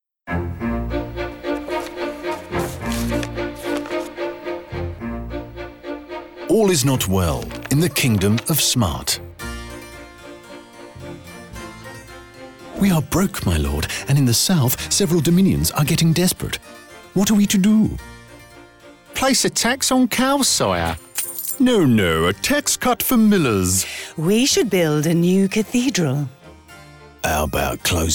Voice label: animation, voiceover; Smart